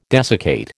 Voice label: "desiccate", American